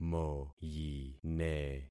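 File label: "moyine", concatenated syllables; Affixing experiment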